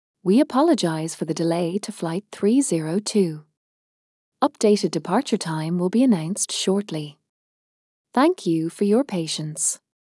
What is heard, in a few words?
airport announce; at the airport